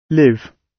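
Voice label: "live", British English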